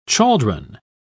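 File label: "chaldron", British English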